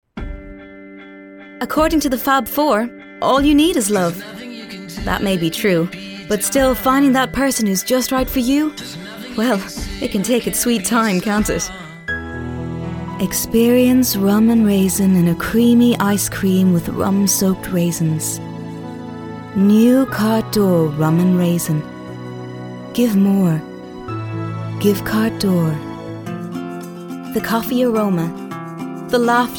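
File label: English Irish Accented English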